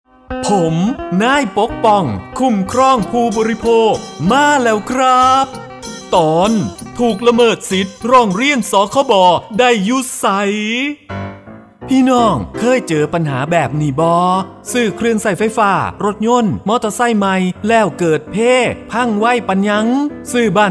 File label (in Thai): สปอตวิทยุ ภาคตะวันออกเฉียงเหนือ; สื่อประชาสัมพันธ์; สคบ.; สำนักงานคณะกรรมการคุ้มครองผู้บริโภค